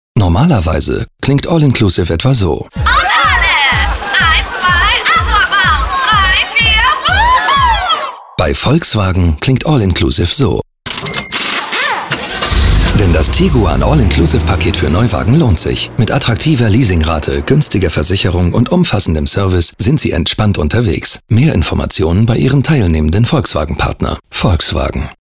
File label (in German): DE Werbung Funkspot; Volkswagen Radio; VW Tiguan